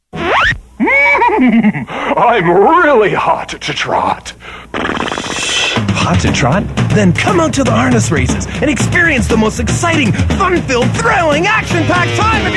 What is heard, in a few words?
commercial